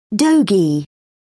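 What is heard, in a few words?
"dogie", British English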